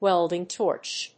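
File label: "welding torch", English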